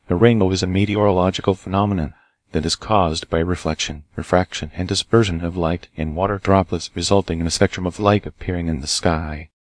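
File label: speaker, American English; piper-voices; en US; libritts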